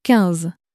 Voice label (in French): "quinze", French